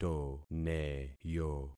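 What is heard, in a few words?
"toneyo", concatenated syllables; Affixing experiment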